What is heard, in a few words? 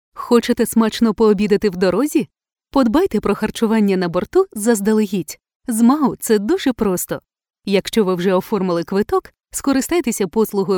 locutora ucrania ukrainian voiceover, Ukrainian female voice